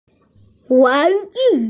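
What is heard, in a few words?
"toy", Mandarin, hearing-impaired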